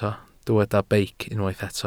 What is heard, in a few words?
"beic", Welsh